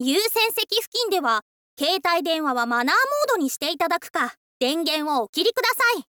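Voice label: yuusenseki fukin de ha keitai denwa wa, Japanese, girl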